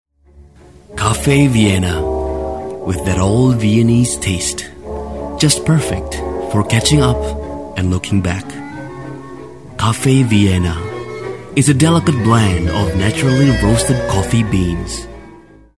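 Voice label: English casual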